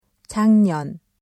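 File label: "작년", Korean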